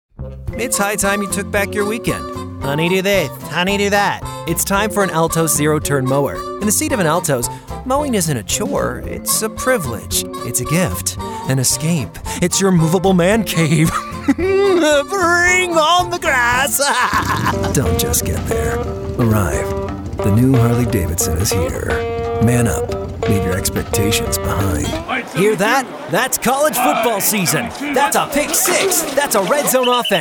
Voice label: english american commercial